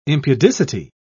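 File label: "impudicity", British English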